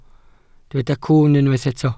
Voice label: "cwwn", Welsh